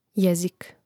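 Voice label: "jezik", Croatian; neizvorni; mreznik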